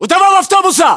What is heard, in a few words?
landmark near bus, ru